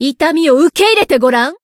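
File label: Voice encounter, Japanese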